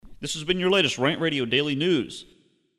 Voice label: Vocal Extro; RantRadio Show Demos